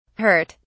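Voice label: "hurt", English; whats the matter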